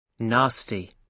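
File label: "nasty", British English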